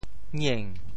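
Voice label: "ngieng2", Teochew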